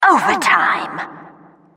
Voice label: announcer overtime